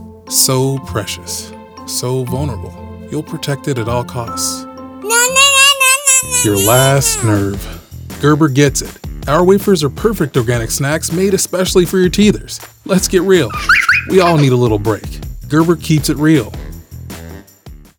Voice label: Television Spots, Friendly, conversational, approachable Male Voice; Gerber Snack Food Spot